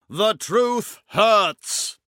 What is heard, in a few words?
narrator line; Danger Mouse - Ultimate